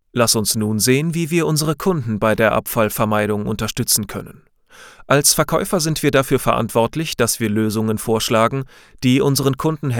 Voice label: elearning; LOFT VOICES

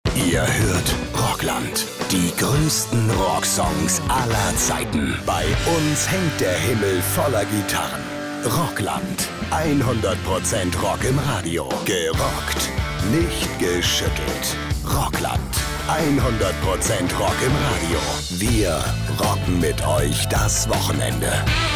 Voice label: stationvoice jingles; rockland

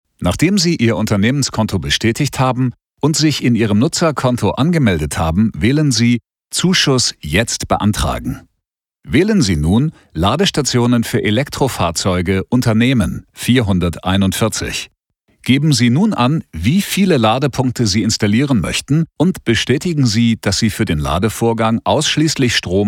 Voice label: E Learning; Telekom

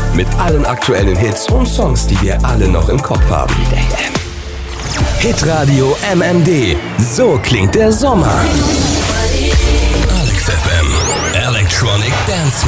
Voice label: Station Voice Radio Imaging

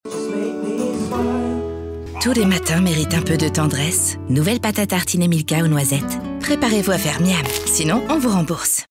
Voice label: french; Milka

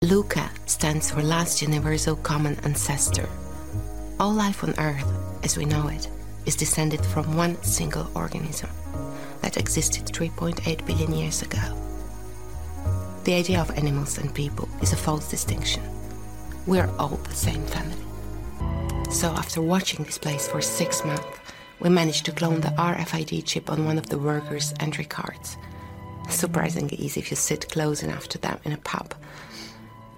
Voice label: englisch Radio Drama outakes; LOFT VOICES

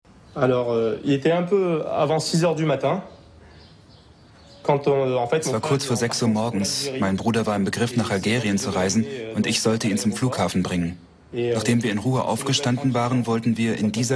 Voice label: voice over; arte

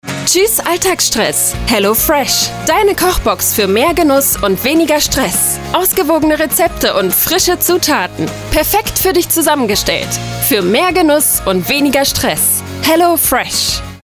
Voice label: COMMERCIAL; HelloFresh

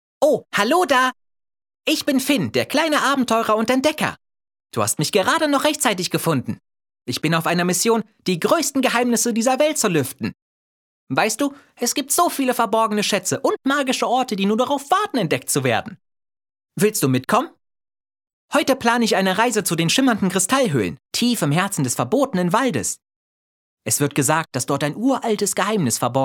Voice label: CARTOON, Children's Voice (Kinderstimme), German; Finn, der kleine Entdecker

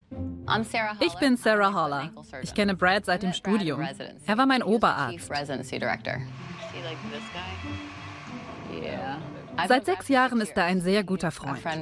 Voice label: Voice Over

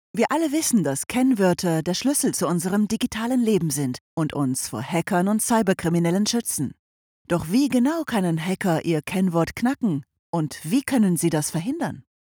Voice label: de DE f elearning

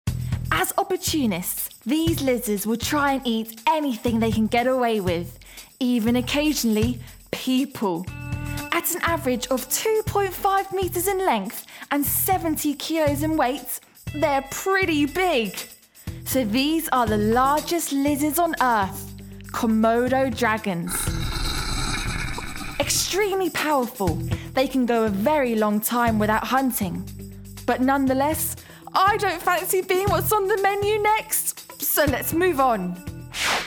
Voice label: childrens documentary; komodo dragons

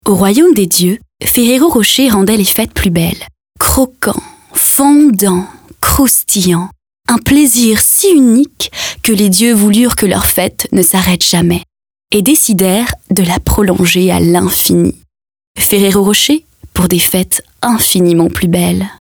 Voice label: commercial; ferrero

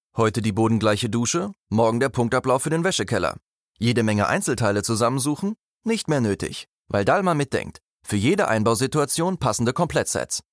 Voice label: Image Testimonial; Dallmer

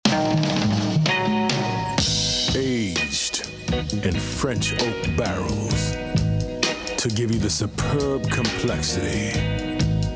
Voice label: Commercial Cognac; LOFT VOICES

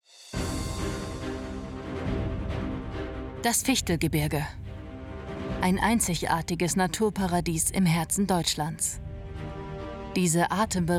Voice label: VOICEOVER

